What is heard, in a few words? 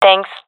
thankful, gendarmerie fem epic; vo; agents